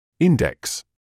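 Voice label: "index", British English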